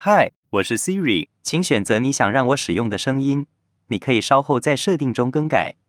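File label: Chinese, Taiwan; iOS 26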